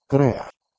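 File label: "kreuh2", Proto-Indo-European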